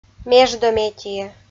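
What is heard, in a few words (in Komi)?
"междометие", Komi, female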